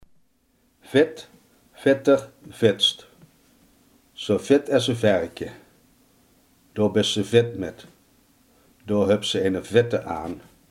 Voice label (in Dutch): "vet", limburgse